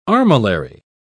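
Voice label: "armillary", British English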